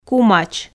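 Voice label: "кумач", Komi, female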